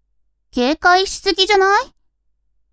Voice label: women